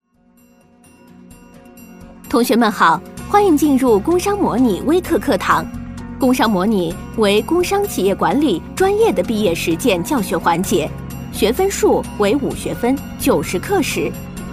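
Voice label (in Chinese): 女 PPT培训课件【讲课类】; 工商模拟; 甜美亲切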